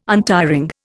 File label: "untiring", Indian